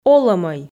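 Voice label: "олӧмӧй", Komi, female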